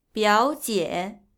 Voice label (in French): grande cousine, chinese